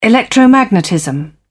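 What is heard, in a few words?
"electromagnetism", British English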